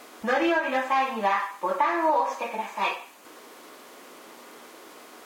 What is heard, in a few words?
self door announce; tetsuon